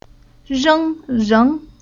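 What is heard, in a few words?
"Reng", Chinese syllable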